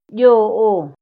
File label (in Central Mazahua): "dyo̷'o̷", Mazahua; INALI; AprendeMazahua